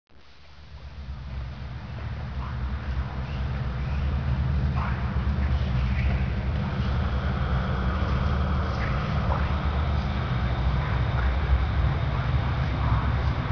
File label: hallucination voices